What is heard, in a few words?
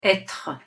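pronunciation "etre", French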